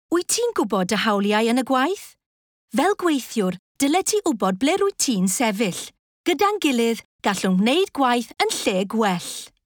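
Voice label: Welsh, female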